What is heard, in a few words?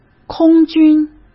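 "空军", Mandarin Chinese; chinese flashcards; hsk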